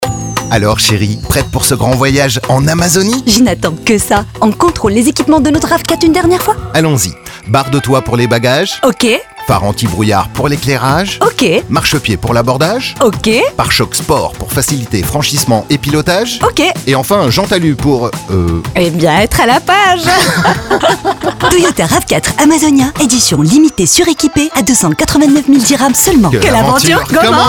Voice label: Pub Radio